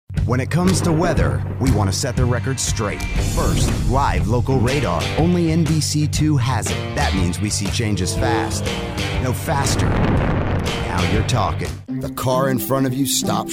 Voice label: Commercial